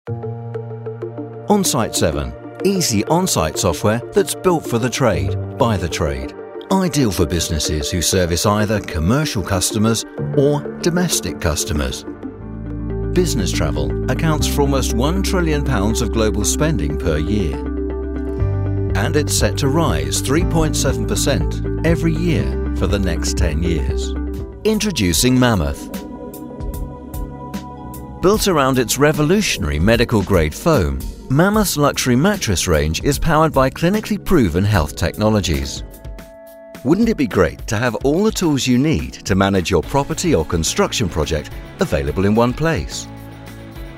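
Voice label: Corporate, British Male Voice Over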